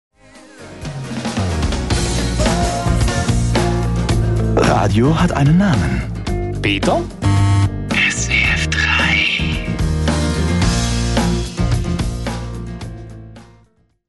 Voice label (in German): radio hat einen namen, stimme sprecher; SWF3